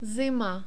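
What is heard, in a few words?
"zima", czech, male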